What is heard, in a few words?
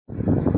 Voice Note